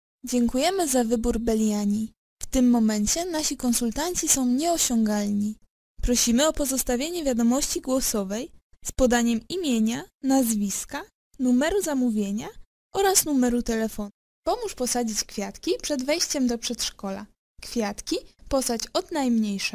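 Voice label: Polish, female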